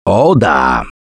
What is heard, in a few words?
pain relief first aid, russian, Survivor Voice; Left 4 Dead 2; Gambler